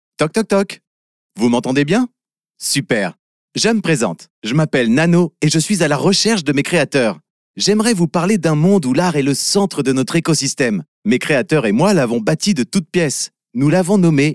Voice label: french animation

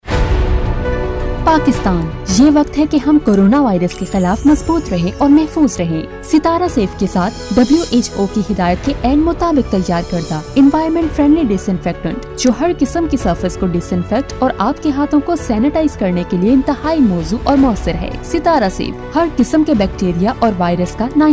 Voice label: urdu narration

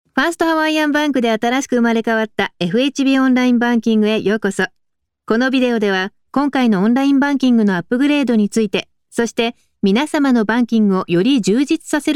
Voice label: japanese promos

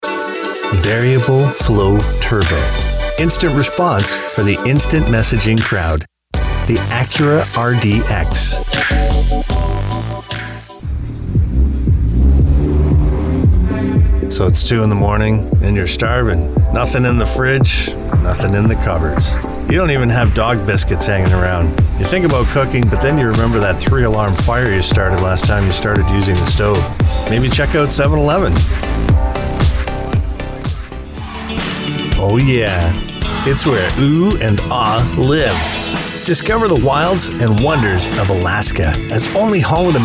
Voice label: english canadian commercial; Canada; Male Canadian Professional Voice Talent

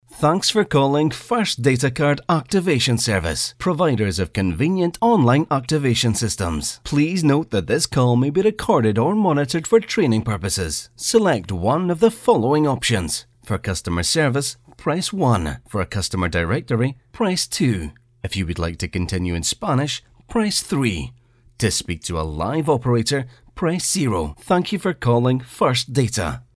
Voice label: english scottish ivr

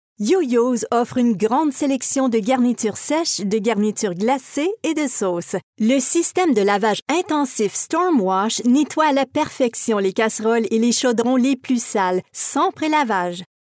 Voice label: french canadian promos; französisch-kanadische Synchronsprecherin

